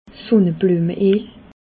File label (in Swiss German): "sunneblueme eel", alsace